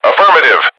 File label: "affirmative", radio; bot